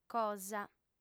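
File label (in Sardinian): "cosa", female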